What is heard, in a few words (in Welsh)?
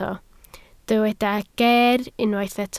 "geer" Welsh